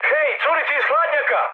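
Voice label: Serbian damaged water mood high; speech; War Thunder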